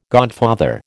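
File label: "godfather", American English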